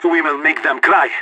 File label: radio lock n load; player vo leet